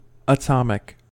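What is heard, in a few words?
"atomic" English, US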